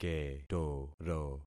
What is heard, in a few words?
"ketoro", concat syllables; Affixing experiment